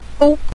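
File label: "dou", Mandarin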